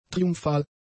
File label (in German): "triumphal", German; adjectives